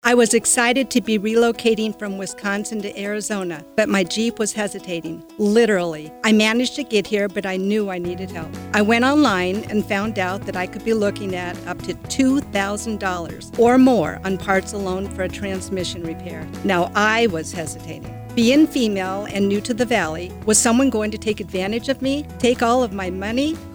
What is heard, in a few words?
radio commercial